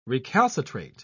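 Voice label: "recalcitrate", British English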